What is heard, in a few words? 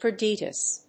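"Chorditis", English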